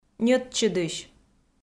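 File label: "ньӧтчыдысь", Komi, female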